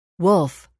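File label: "wolf", English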